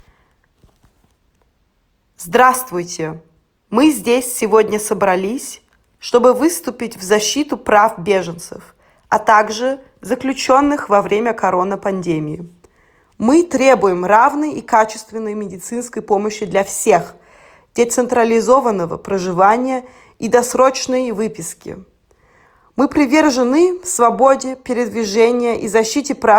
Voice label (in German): russisch